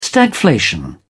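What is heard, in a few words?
"stagflation", British English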